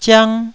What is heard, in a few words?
"ziang1", Taiwanese